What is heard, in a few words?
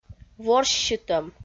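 "ворссьытӧм", Komi, female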